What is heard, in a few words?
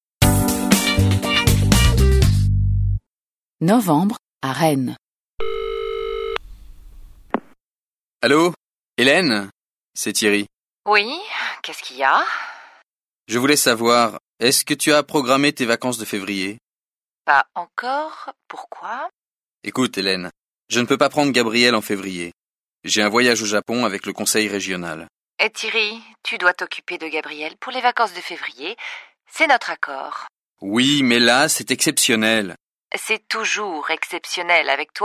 French